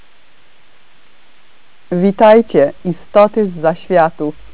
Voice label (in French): polonais; voyager